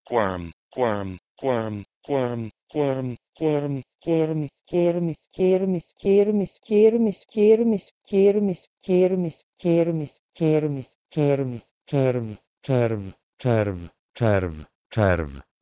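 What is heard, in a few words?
Proto-Indo-European kwrm to Polish czerw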